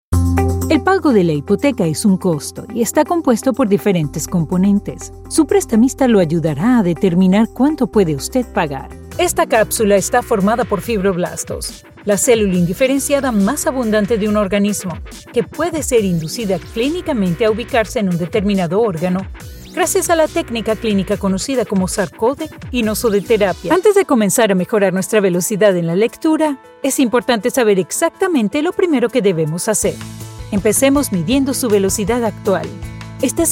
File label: spanish venezuelan e-learning